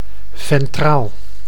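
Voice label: "ventraal", Dutch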